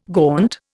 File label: "gaunt", Indian